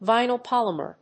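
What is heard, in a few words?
"vinyl polymer", English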